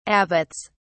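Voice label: "abbots", British English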